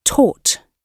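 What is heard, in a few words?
"taught", British English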